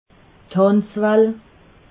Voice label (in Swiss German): "handzwaal", alsace